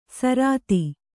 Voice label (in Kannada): "ಸಾರತಿ", Kannada